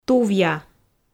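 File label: "тувъя", Komi, female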